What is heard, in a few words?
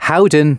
announcements male